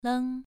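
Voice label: "leng", Mandarin Chinese, second tone, pronunciation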